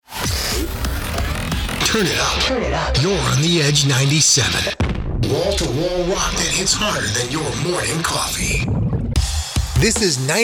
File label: Radio Imaging Promo